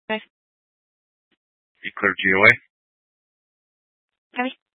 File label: Police Department Dispatch; Grand Junction